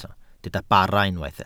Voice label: "bara", Welsh